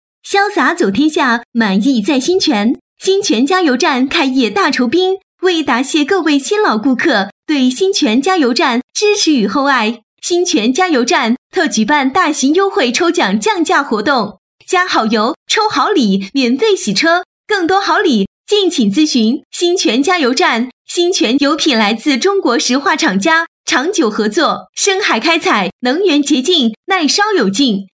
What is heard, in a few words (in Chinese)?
促销加油站亲和活力